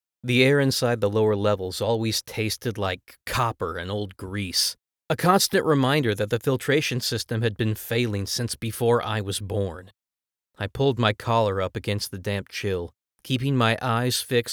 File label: Audiobooks